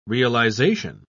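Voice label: "realisation", British English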